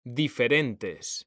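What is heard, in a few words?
"DIFERENTES", Spanish; mekanta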